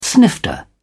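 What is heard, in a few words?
"snifter", British English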